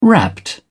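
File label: "wrapped", English, British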